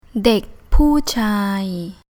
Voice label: "Dèk Pûu Chaay", Thai

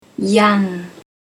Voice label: "Yung", Thai

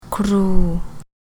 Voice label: "Kruu", Thai

